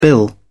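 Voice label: "bill", English, uk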